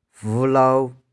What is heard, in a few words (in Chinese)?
玉環 vu3lou3, 台州語; 霧露; 霧。[mist, fog](n.)